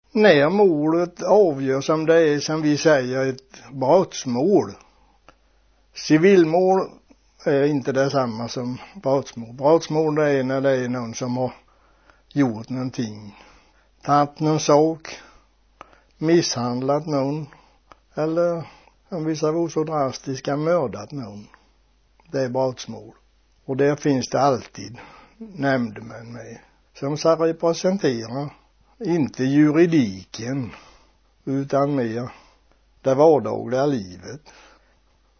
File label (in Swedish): småländska